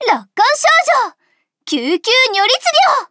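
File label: start, Japanese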